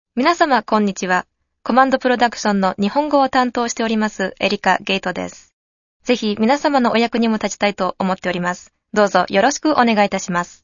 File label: Japanese